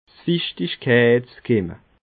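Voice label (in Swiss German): "fichtigkeitskimme", Alsatian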